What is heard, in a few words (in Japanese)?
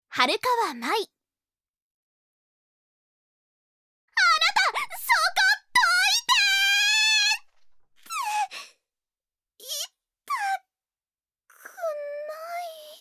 voiceactor woman